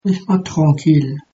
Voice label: leave me alone, mauritian creole

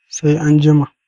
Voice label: goodbye hausa

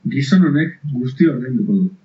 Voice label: pay, basque

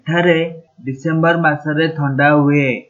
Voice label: cold in december, odia

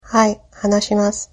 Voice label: yes a little, japanese

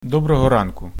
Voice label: good morning, ukrainian

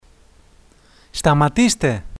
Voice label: "stop", Greek

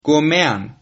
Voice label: good morning, Sylt Frisian

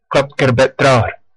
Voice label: slowly, West Flemish

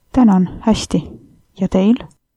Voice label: fine, estonian; Tänan, hästi. Ja teil?

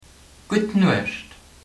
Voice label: goodnight, luxembourgish

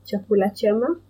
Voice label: bon appetit swahili

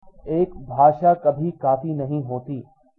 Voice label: one language, hindi

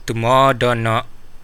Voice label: goodbye, kadazan

